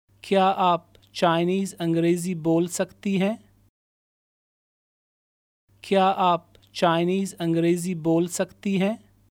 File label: Can you speak Chi Eng Urdu female